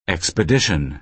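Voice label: "expedition", American English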